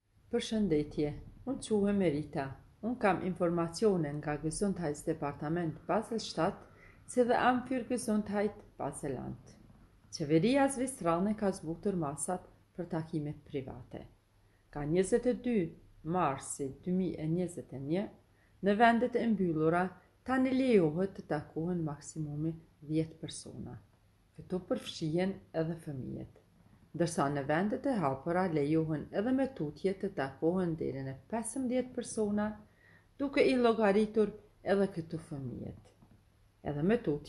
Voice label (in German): albanisch; kantonsarztlicher dienst; medizinische dienste; informationen in verschiedenen sprachen